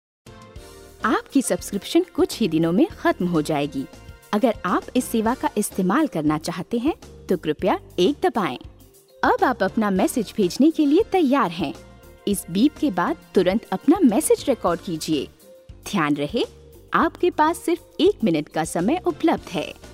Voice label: AD HINDI, F HINDI